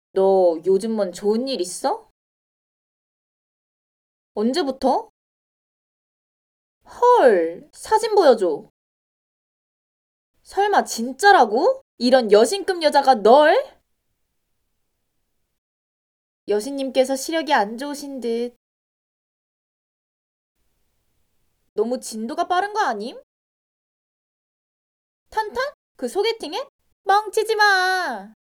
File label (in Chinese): 游戏角色, 成熟